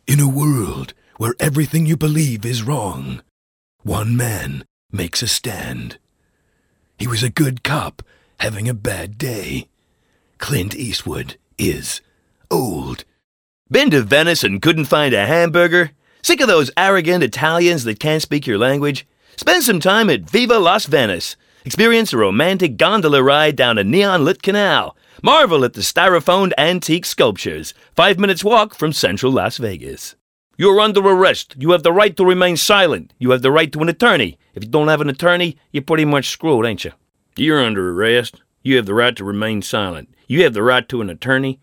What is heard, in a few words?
accents